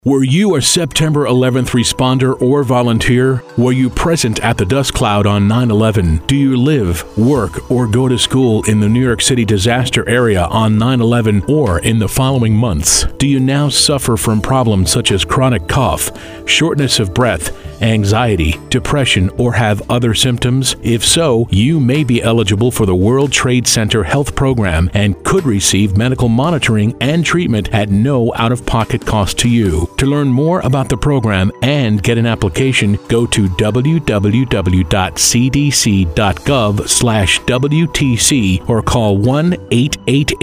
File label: healthcare eng